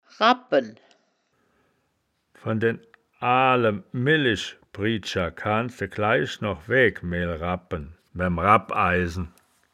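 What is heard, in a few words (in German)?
"rabpen", wittlicher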